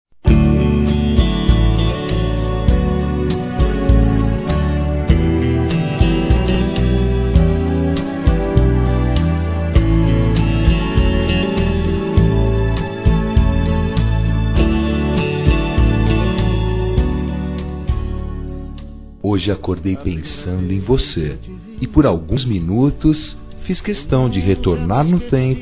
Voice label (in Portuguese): masc; Amizade distante; Telemensagens 2017; Saudades